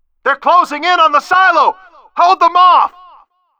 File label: loudspeaker return to base; outland 12